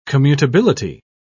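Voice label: "commutability", British English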